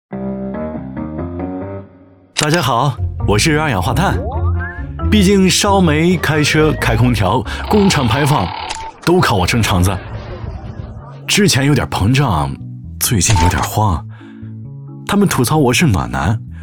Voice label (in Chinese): 男 年轻幽默; 二氧化碳